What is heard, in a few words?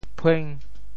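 "phueng5", Teochew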